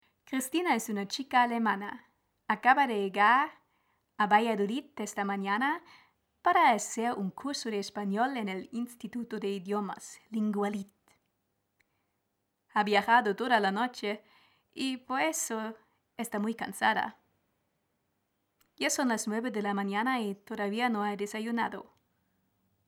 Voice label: Stimmprobe Spanisch

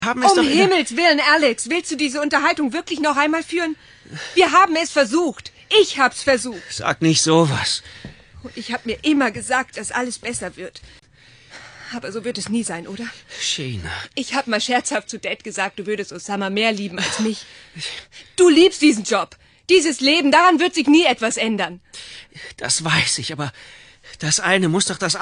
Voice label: Hörspiel; Six Degrees; Sheena Cartwright

